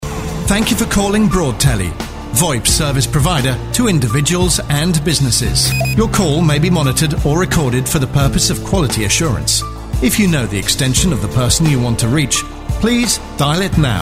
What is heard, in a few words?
telephone